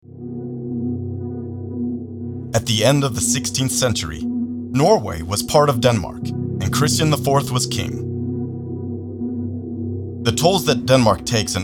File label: ENG; Spot 18 Bastion Skarpe Nord; storygo